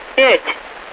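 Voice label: Russian speech, Mi-8MT RI65; DCS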